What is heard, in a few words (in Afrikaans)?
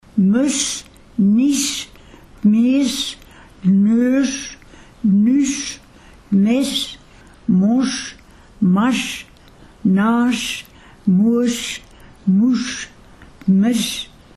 Lys woorde ou spreker